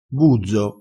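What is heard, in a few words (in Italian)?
"buzzo", dialettale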